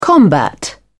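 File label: "combat", British English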